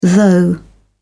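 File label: "though", British English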